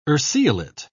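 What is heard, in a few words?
"urceolate", British English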